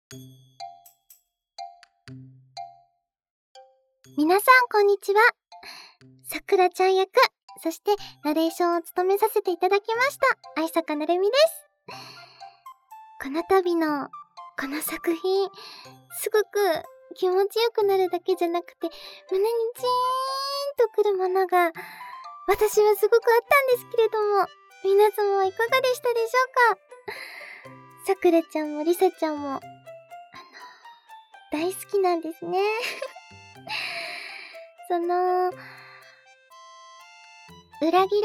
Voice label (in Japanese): フリートーク